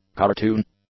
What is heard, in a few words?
"cartoon", English; nouns